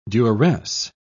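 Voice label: "duresse", British English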